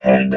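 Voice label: "head", robot voice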